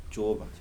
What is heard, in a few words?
"sun", Kibembe